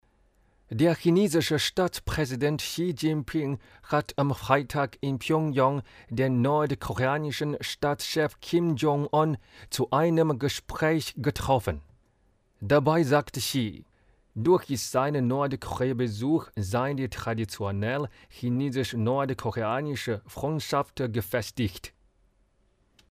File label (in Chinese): 德语样音